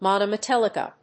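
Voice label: "monometallic", English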